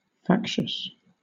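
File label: "factious", British English; pronunciations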